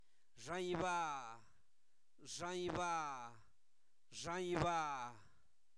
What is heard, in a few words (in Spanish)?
cielo, Zapoteco, santo domingo albarradas; fenomenos naturales